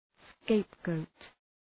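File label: "scapegoat", English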